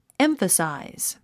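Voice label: "emphasize", 英単語 発音; émfəsàiz エンファサイズ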